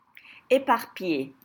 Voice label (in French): "Séparpillait", French